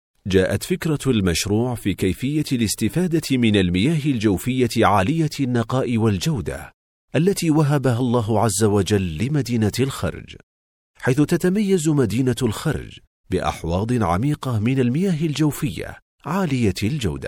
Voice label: Arabic